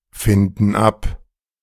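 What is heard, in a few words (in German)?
"finden ab", German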